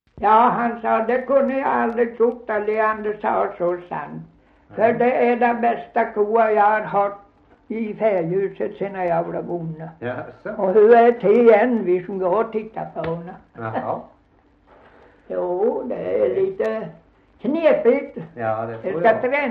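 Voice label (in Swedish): Gunnarp Halland, Svenska sagor, dialekter; Folkminnen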